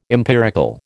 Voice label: "empirical", American

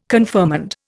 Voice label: "confirmand", Indian

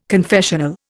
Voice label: "confessional", Indian

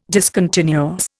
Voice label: "discontinuous", Indian

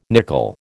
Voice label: "nickel", American

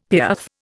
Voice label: "piaffe", Indian; Dictionary

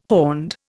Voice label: "horned", Indian

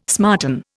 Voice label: "smarten", Indian

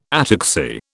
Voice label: "ataxy", British

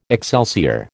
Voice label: "excelsior", American

